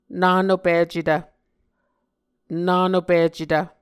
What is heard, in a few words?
"nanopicetv", Muscogee